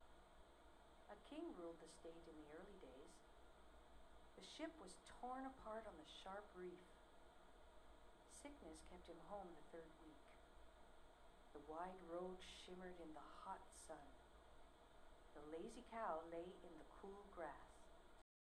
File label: female voice voice passthrough